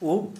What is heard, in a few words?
Santali Hair